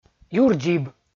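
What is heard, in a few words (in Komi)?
"юрдзиб" Komi, male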